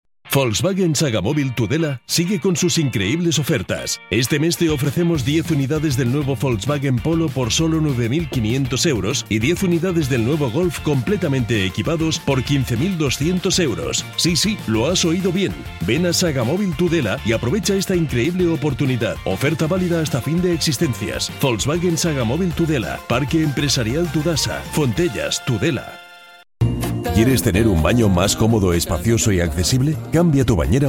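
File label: M, Spanish, male, commercial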